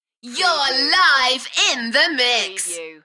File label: UK female, Enthusiastic; beanstalk audio